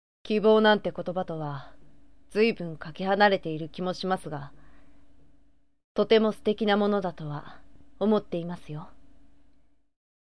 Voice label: sample boy